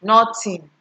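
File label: "noting", Nigerian Pidgin, female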